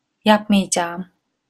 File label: "yapmiycam", Turkish